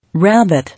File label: "Rabbit", English